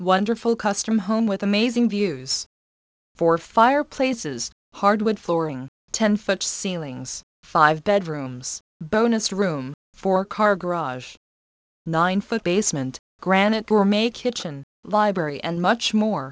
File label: female voice